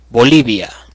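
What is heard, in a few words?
bolivia, Hebrew; gcompris-data; geography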